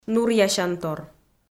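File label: "нуръясянтор", Komi-Zyrian, female; audio dict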